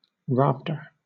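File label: "rafter", British English; pronunciations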